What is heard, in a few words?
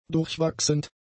"durchwachsend", german; adjectives